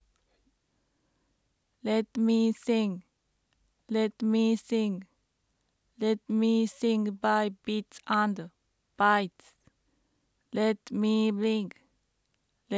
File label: speak female